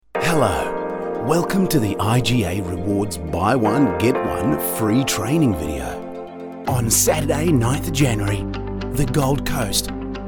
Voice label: VO promo

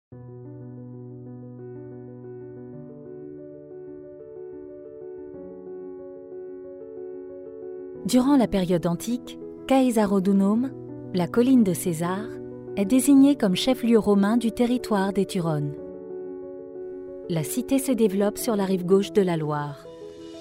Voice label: PROMO; Ville de Tours

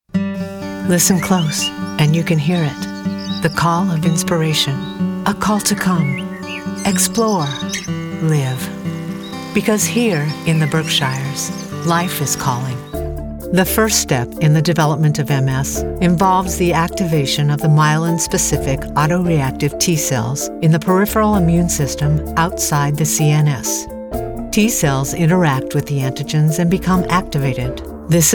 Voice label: narration

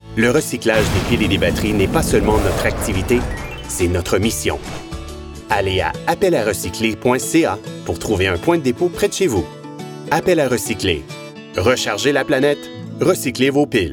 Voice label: FRENCH; CALL 2 RECYCLE

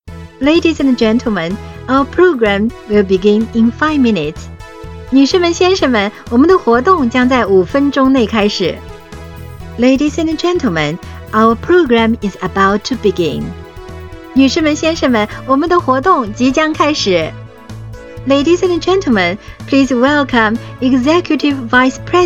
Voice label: Live Announcer

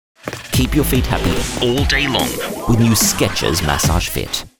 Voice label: ad; Sketchers

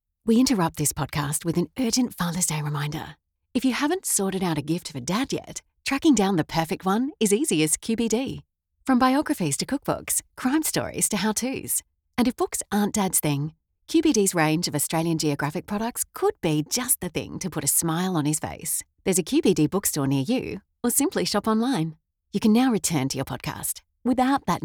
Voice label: Podcast Commercial; QBD Books

